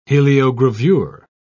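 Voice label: "heliogravure", British English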